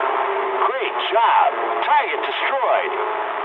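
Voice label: English bomb success mood high; speech; War Thunder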